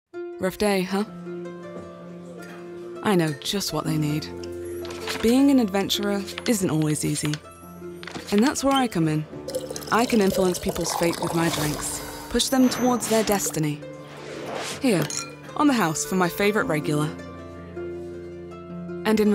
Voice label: Friendly Tavern Keeper, tv promos; en-GB; voice of god

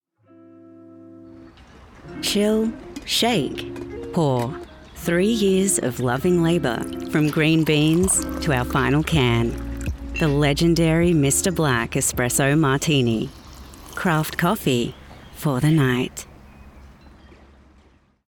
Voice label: commercial, coffee, smooth/sophisticated

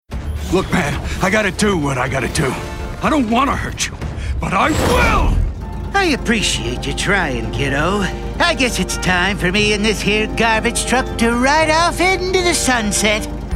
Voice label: Animation

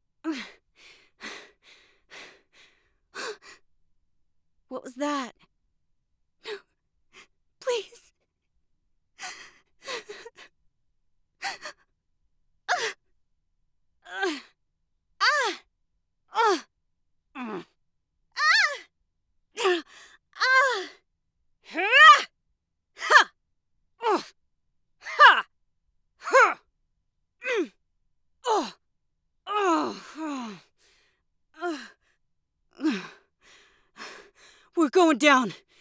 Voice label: gaming efforts, en-NZ; bigmouthvoices

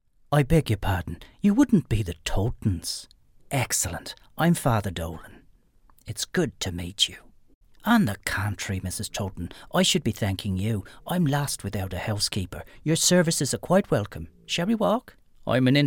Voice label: Irish Character Accent

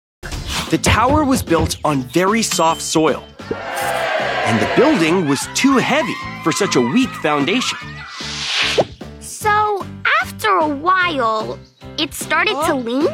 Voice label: narration sample; marco polo

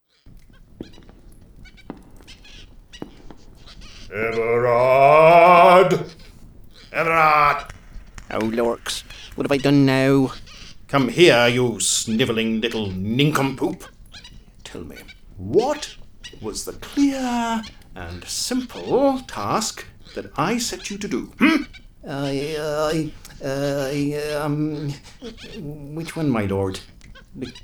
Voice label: Character; Lord Avillion and Everard

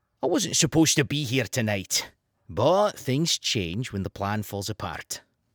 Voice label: Scottish Character

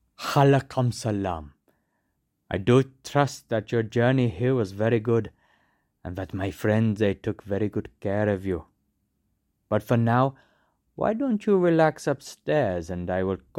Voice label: Pakistani

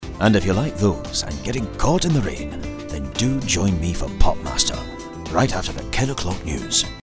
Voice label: Glaswegian Comedy, scottish character

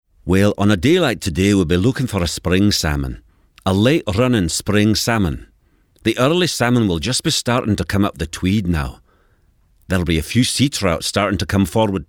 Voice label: Scottish accent

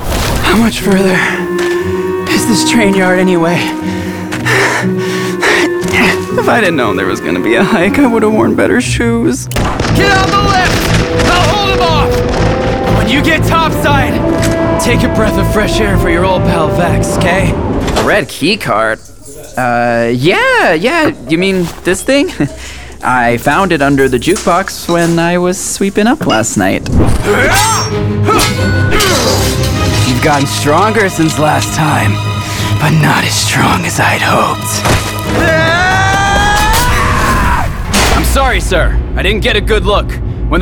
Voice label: Video Game, en-NZ; Video Game Demo

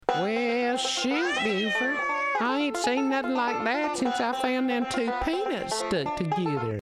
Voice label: Southern

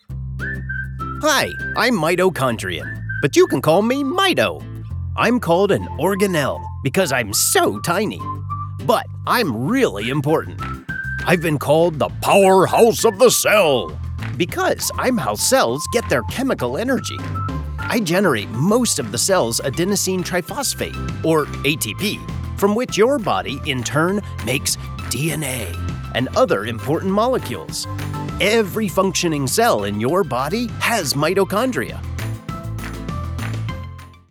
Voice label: Kids Elearning, en-US

